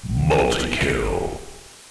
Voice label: "multikill", male